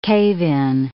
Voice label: "cave-in", English

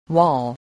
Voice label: "wall", spelling

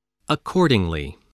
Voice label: "accordingly", English voice

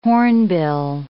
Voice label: "hornbill", English

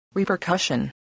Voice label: "repercussion", English

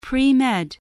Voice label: "pre-med", voice, spelling

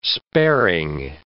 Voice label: "sparing", English

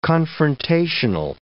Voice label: "confrontational", English

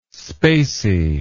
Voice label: "spacey", english

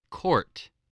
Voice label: "court", voice spelling

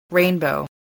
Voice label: "rainbow", english

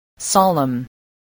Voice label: "solemn", English voice

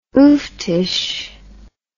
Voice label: "ooftish", English

